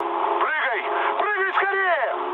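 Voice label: Russian bailout mood med, speech; War Thunder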